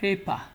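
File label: "paper", Nigerian Pidgin, male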